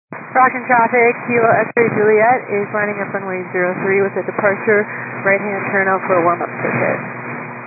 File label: 122 725MHz, scanner radio